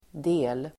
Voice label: "del", Swedish